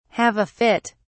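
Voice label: "have a fit", English, uk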